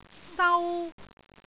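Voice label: "sau1", Cantonese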